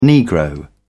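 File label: "negro", British English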